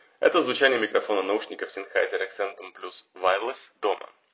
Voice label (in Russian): sennheiser accentum plus wireless doma; Sennheiser Accentum Plus — это беспроводные полноразмерные наушники и новинка 2024 года.